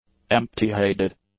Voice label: "empty-heded", English; adjectives

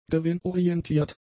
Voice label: "gewinnorientiert", German; adjectives

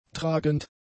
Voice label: "tragend", German; adjectives

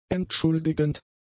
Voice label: "entschuldigend", German; adjectives

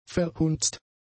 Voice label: "verhunzt", German; adjectives

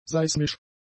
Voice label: "seismisch", German; adjectives

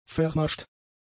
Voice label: "vermascht", German; adjectives

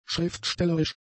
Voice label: "schriftstellerisch", German; adjectives

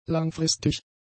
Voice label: "langfristig", German; adjectives

